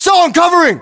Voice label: US response covering, battlechatter, english; localized english